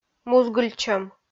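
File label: "музгыльтчӧм" Komi, female; kpv audio dict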